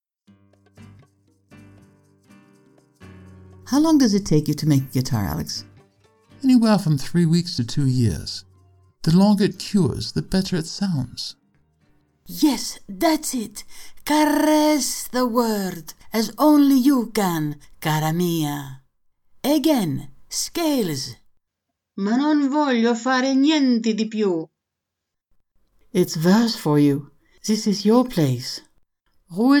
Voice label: Mixed Samples Different Accents Languages with Music Intro and Fade Out